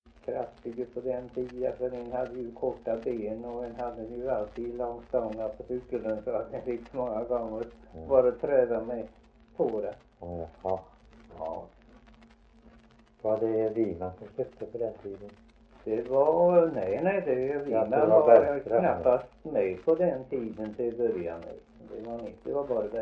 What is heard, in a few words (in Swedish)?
Värö HALL, dialekter; Folkminnen